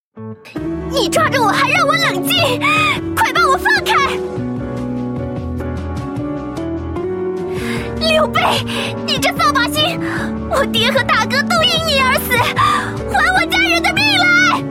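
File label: young woman, female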